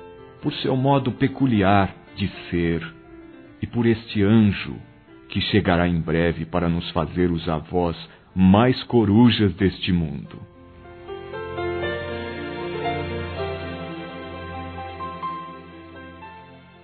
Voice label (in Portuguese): PARAB. PELA GRAVIDEZ DA FILHA, Telemensagens, Maternidade, masc